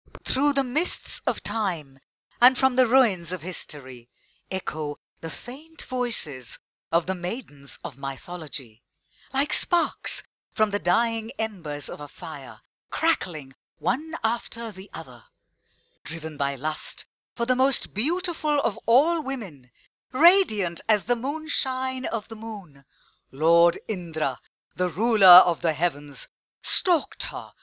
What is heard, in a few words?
English Female